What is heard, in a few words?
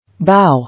"Bow", English